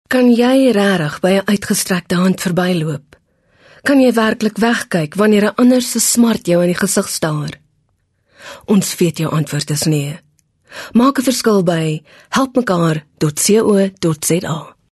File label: Afrikaans Soft Sell